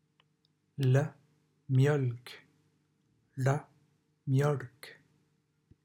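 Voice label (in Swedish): "mjolk", Swedish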